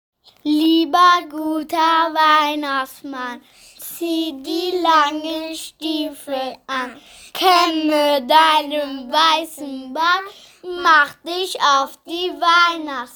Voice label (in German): durchsage; vorklasse